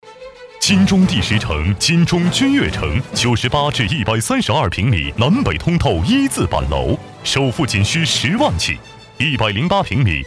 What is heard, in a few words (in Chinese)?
男 地产; 金钟君悦城